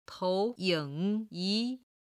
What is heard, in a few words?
"tou2 ying3 yi2", chinese